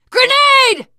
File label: grenade, teen girl voice; survivor voice